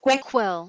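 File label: "kwekwel", Proto-Indo-European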